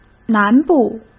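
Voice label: "南部", Mandarin Chinese; chinese-flashcards; hsk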